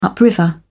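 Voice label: "upriver", British English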